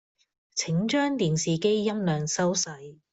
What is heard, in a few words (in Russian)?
Гонконгский